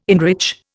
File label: "enrich", Indian